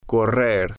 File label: "correr", spanish